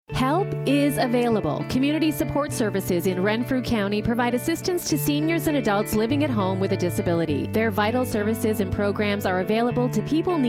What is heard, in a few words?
COVID 19 Radio Message